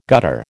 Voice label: "gutter", American

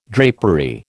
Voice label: "drapery", American

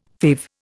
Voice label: "fave", Indian

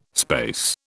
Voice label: "space", British

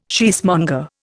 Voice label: "cheesemonger", Indian

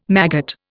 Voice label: "maggot", Indian

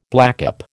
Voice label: "blackcap", American

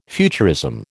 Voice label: "futurism", American

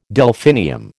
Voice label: "Delphinium", American

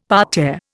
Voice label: "parterre", Indian; Dictionary

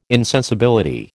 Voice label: "insensibility", American

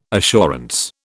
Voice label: "assurance", British

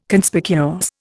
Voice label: "Conspicuous", Indian

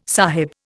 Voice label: "sahib", Indian

